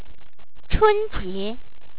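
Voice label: "chunjie", Chinese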